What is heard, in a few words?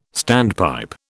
"standpipe", British